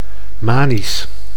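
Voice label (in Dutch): "manisch", Dutch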